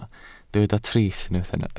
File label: "trist", Welsh